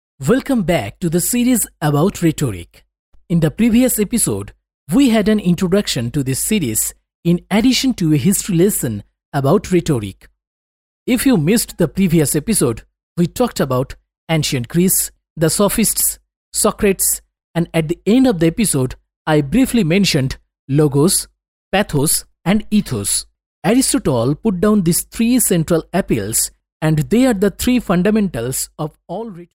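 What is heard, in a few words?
Bengali, male, English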